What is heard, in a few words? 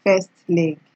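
"first leg", Nigerian Pidgin, female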